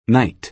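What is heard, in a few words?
"knight", American English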